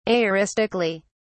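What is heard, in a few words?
"aoristically", British English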